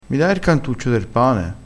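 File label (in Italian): "cantuccio", dialettale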